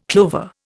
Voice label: "clover", Indian

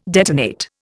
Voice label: "detonate", Indian

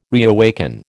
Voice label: "reawaken", American

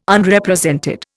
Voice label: "Unrepresented", Indian

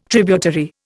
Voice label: "tributary", Indian

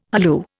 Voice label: "halloo", Indian

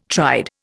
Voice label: "tried", Indian

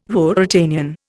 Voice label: "Ruritanian", Indian

